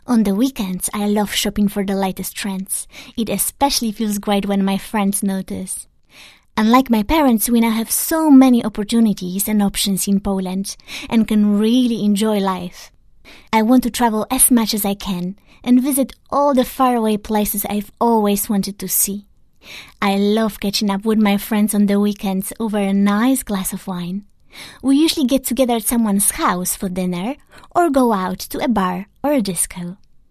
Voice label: English, Eastern European, Polish, Female, Teens-20s